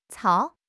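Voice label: "cao3", Chinese; HSK3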